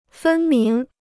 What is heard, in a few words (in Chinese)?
"分明", Chinese